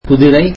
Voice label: tamil horse